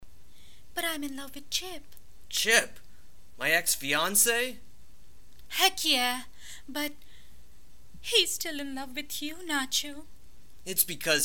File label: Cheesy Read; DORITOS